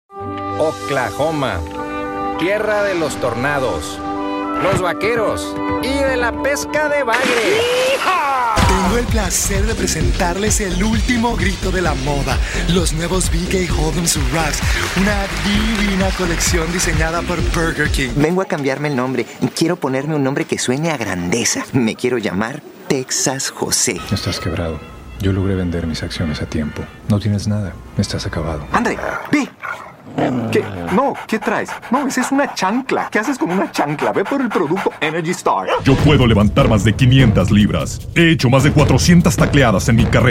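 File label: Male Voice Over Spanish Character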